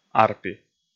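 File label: "арпи", Komi, male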